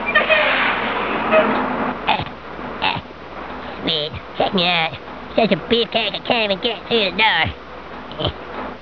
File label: sweet